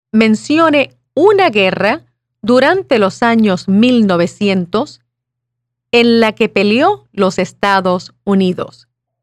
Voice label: Spanish